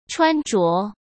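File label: "穿着", Mandarin Chinese; hsk; chinese-flashcards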